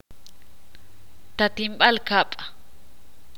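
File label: "panal" Spanish, Ixil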